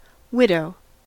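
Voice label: "widow", American English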